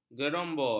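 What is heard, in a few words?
"gerombol", Malay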